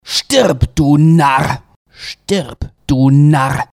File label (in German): Narr